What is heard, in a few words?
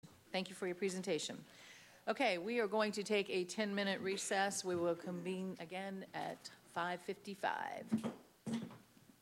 Announcement of Recess, planning zoning meeting